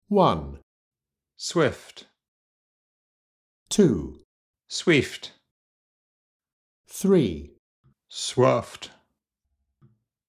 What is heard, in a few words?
SSBE GA